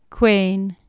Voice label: "cwen" Anglo Saxon